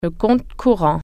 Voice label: "checking account", French

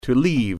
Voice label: "leave", English

